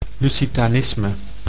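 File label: "lusitanisme", Dutch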